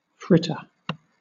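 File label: "fritter", British English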